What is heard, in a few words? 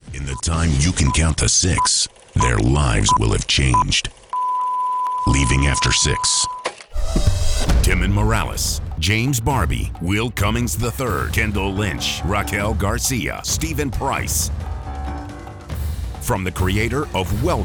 trailer